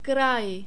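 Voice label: "kraj", Czech, male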